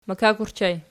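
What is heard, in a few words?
"makak" ergative plural, Archi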